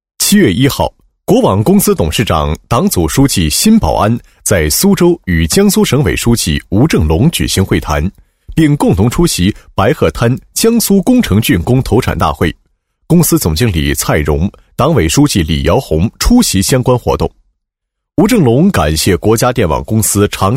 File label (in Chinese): 男, 新闻配音, 客观严肃, 大气主流 大气浑厚; 苏供新闻第四期